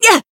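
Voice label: attack, Japanese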